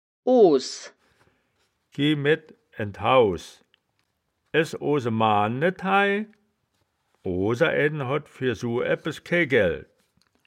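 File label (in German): "oos", Wittlicher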